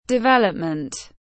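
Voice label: "Development", English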